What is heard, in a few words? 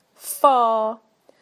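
"far", British English; PictureDictionary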